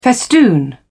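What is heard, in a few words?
"festoon", British English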